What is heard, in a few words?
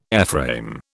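"airframe", British English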